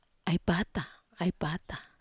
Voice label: "ai pata", wamesa